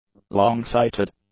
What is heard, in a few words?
"long-sighted", English; adjectives